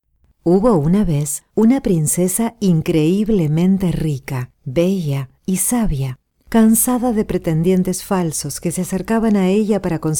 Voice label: Narraciones Neutro LATAM Varios

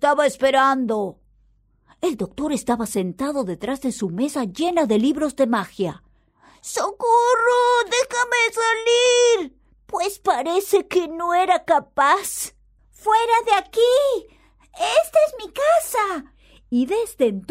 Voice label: Audiolibro Narrador Personajes

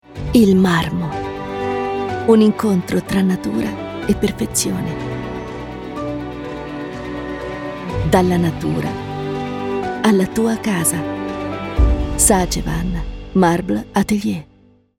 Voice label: Spot TV

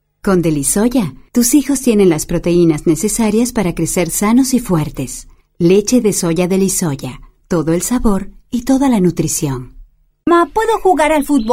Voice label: Anuncio Call to action Enfatizado

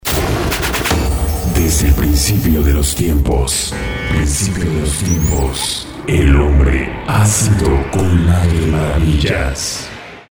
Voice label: TRAILLER PELICULA

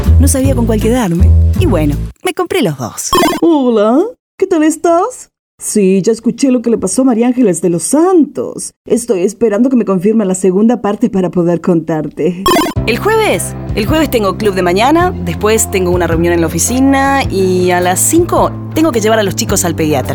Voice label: personajes

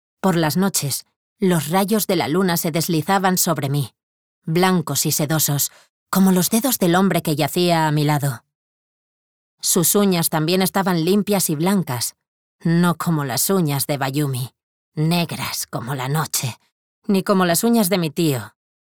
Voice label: AUDIOLIBRO

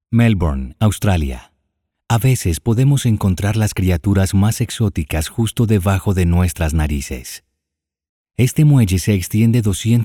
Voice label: DOCUMENTAL

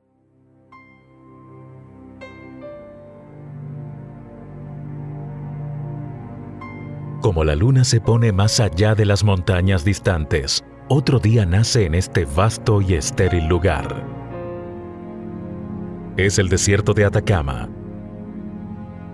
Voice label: DOCUMENTAL

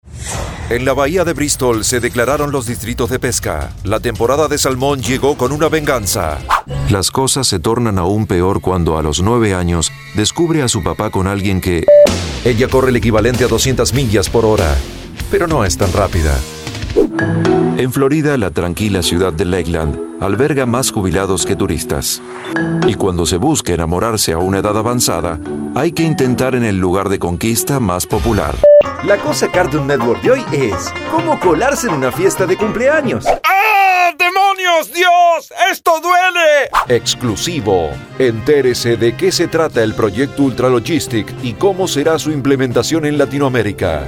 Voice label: espa ol Neutro

